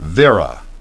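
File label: "Vera", English; ˡvɪə.rə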